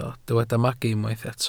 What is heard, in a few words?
"magu", Welsh